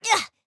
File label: Hurt